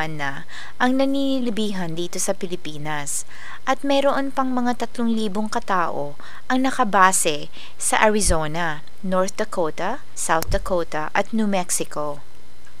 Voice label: call center blooper, Tagalog Business Dialogs

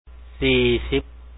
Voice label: 40, Lao; ສີ່ສິບ; si#i-si2p

